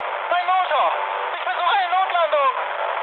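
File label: German damaged engine mood high, speech; War Thunder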